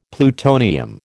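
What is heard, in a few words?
"plutonium", American English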